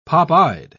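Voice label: "popeyed", British English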